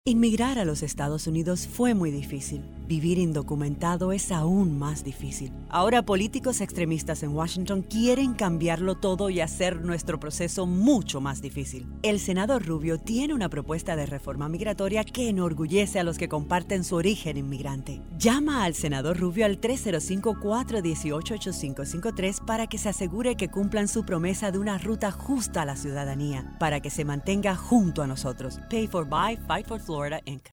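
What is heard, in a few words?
Spanish Radio Ad; FightForFL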